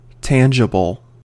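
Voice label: "tangible", US English; pronunciation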